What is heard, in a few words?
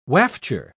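"wafture", British English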